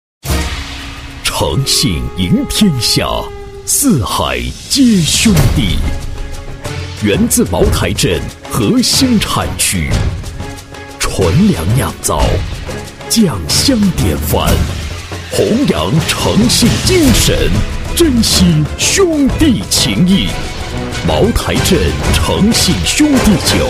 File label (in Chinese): 男 广告 大气古典酒, 国语男声配音